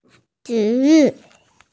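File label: "zhi4yu4", Chinese, F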